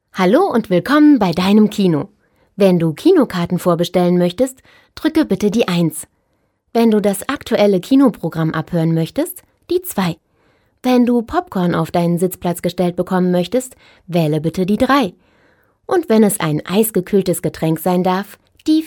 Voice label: german